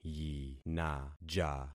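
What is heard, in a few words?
"yinaja", concatenated syllables; Affixing experiment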